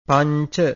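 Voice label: "panca", Pali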